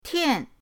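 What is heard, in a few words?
"tian", Mandarin pinyin, fourth tone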